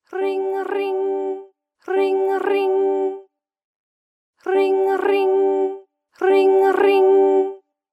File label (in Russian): rrrrryn rryn prosto zhennskiy golos izobrazhaet